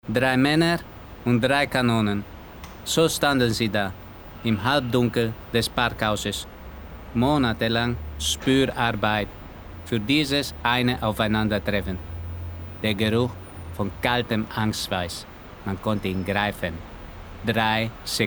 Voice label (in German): Griechisch Akzent; LOFT VOICES